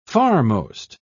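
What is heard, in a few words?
"farmost", British English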